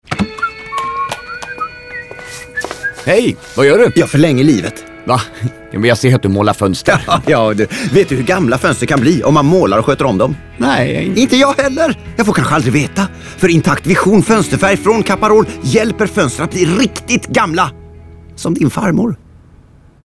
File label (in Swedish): radioreklam; caparol; fonstret